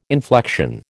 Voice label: "inflection", American